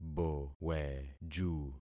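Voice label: "boweju", concatenated syllables; Affixing experiment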